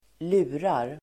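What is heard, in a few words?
"lurar", Swedish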